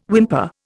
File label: "whimper", Indian